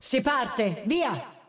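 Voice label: Italian; 索杰恩（守望先锋2）/终极技能; 意大利语; Si parte! Via!; 出发吧！上！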